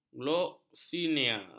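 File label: "gloksinia", Malay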